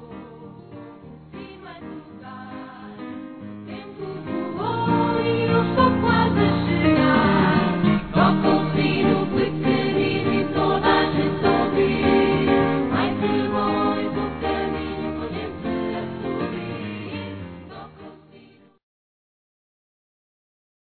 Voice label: Natal Para Tio Feminino, Telemensagens; Natal e Ano novo

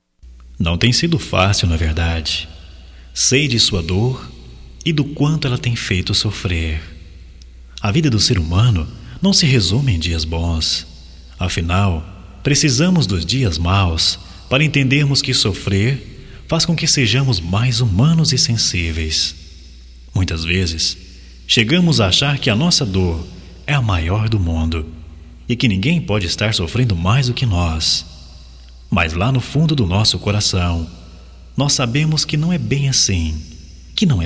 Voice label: CONFORTO GERAL M, Só Voz, masc; Telemensagens; Telemensagens 2017

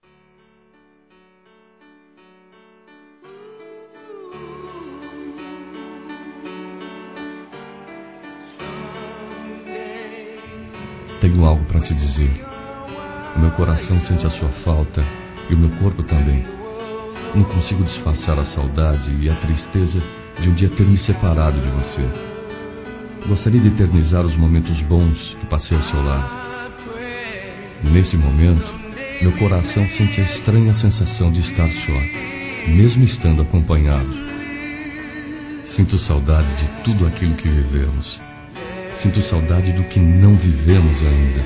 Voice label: Conquista Ex Esposa Masculino; Telemensagens; Conquistas Cantadas paqueras; Telemensagens 2017

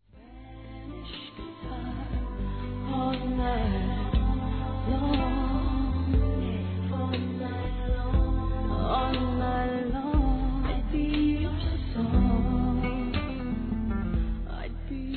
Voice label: Telemensagens Amor Fem; O TEU AMOR(F)

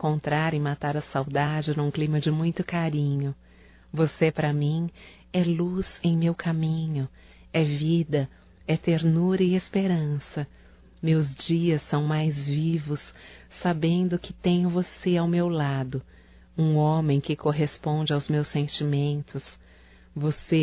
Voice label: BOA TARDE ROMâNTICO, fem, Telemensagens; Bom dia, tarde e noite; Telemensagens 2017

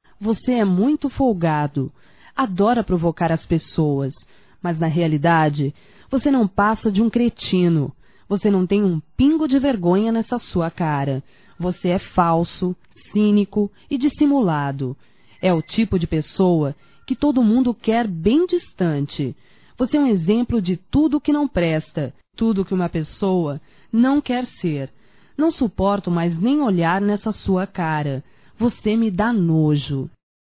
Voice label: Xigando Geral Mulher Para Homem Feminino; Telemensagens 2017

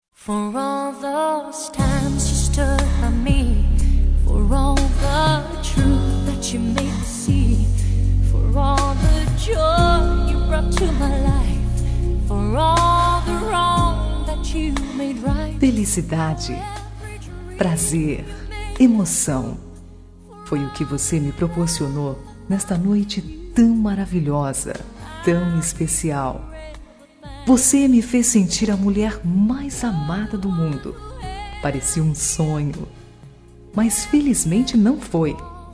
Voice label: ROMANTICA FEM; Telemensagens; Românticas

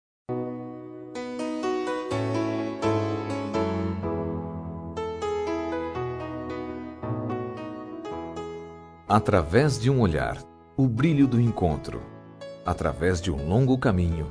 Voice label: Aniversário de casamento (filhos enviam), masc.

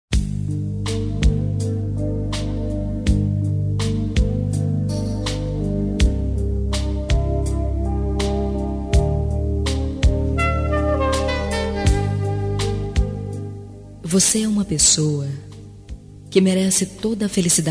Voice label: ROM TERMINANDO NAMORO FEM; Telemensagens; Românticas

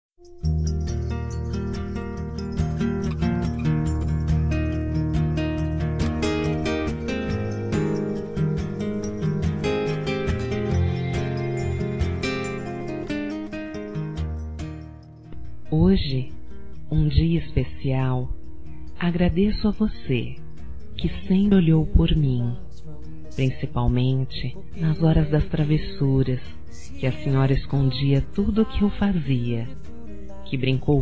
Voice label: para avó f, Telemensagens, Dia das Mães; Telemensagens 2017

